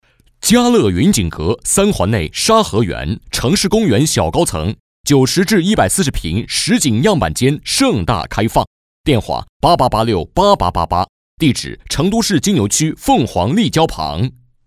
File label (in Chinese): 男 广告 房产 浑厚 大气